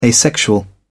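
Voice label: "asexual", British English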